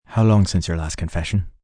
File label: How Long Since, Church, prayer, male, priest